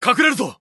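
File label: Japanese Neutral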